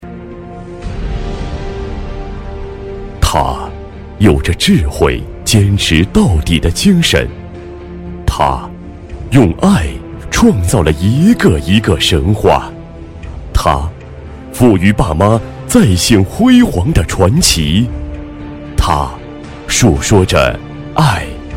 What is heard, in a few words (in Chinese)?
专题 人物, 男国